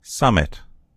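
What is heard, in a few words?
"summit", American English; gcompris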